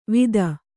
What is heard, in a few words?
"ವಿತ್ತ", Kannada